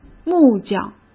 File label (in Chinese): "木匠", cmn; hsk